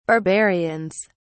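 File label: "barbarians", British English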